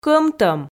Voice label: "кӧмтӧм" Komi

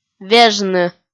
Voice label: "вежны" kpv f

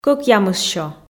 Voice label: "кӧкъямыссё" Komi

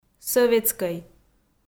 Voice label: "сӧветскӧй", Komi

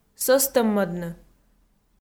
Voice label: "сӧстӧммӧдны", Komi

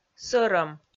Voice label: "сӧрӧм", Komi

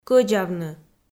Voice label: "кӧдзавны" Komi

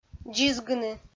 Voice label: "дзизгыны", Komi

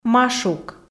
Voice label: "машук", f

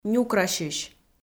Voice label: "нюкрасьысь", Komi

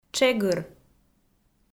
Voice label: "тшегыр", Komi

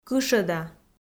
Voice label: "кышӧда" Komi

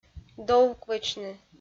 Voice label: "довквӧчны", Komi

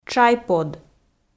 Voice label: "тшайпод", Komi

